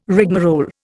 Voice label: "rigmarole", Indian

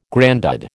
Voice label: "grandad", American

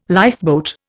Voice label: "lifeboat", Indian

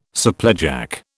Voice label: "supplejack", British

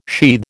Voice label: "sheathe", American

